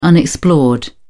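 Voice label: "unexplored", British English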